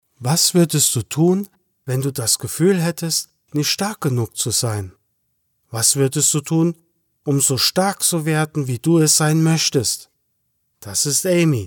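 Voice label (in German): German, male, filmtrailer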